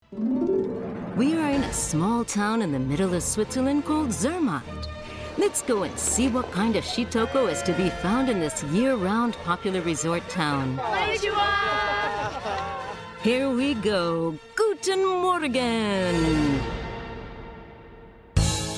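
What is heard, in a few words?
narration english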